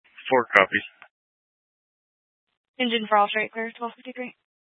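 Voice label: Fire Dispatch, scanner audio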